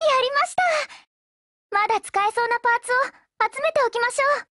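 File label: WIN Japanese, Voice; GF Alarm; Danuvia 43M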